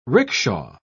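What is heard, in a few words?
"ricksha", British English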